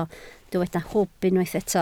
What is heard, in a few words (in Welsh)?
"hwb", Welsh